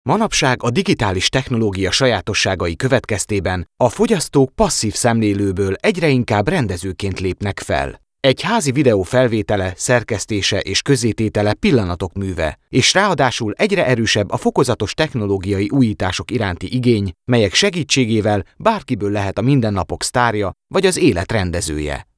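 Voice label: Hungarian, male, corporate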